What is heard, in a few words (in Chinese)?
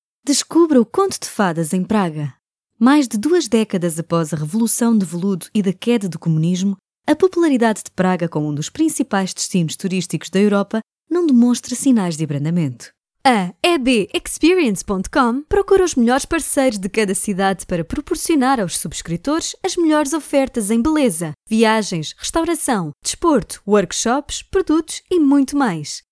西班牙语女声 Female, EU Portuguese, 低沉 激情激昂 大气浑厚磁性 沉稳 娓娓道来